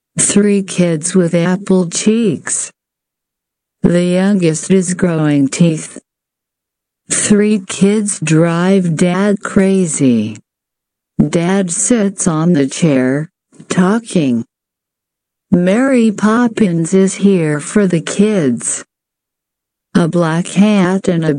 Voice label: Slow